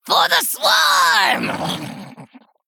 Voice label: Female Night Elf Attack